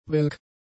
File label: "welk", German; adjectives